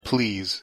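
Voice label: pronunciation "please", English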